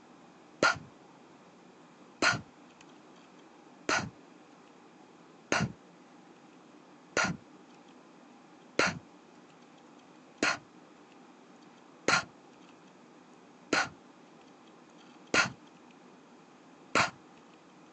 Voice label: p sound